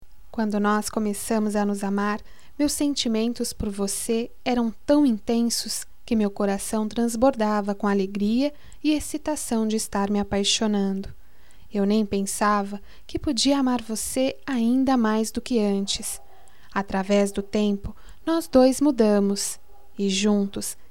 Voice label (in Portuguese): Nosso amor amadureceu, fem